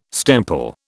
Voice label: "stemple", British